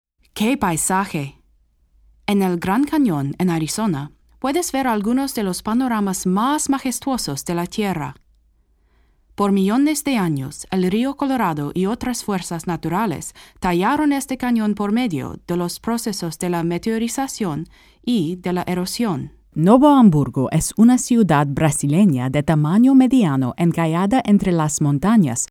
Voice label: Spanish